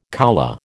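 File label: "coulée", American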